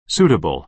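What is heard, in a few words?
"suitable", American English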